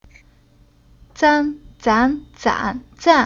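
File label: "Zan", chinese syllable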